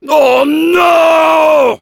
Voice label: negative vocalization, fr; Oh nooooon !; Heavy